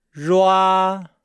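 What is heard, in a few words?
"rua", Chinese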